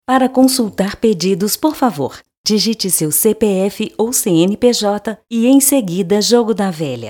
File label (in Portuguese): ura fastshop neutro